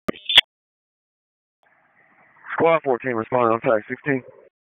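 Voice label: Public Safety Tactical, p25; wake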